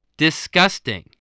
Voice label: "disgusting", spelling